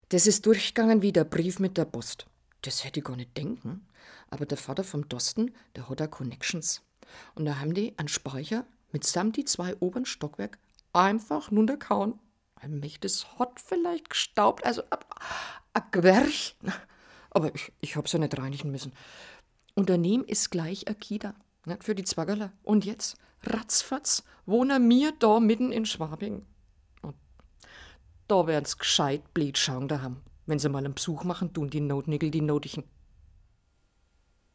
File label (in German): Fränkisch, sprachdemos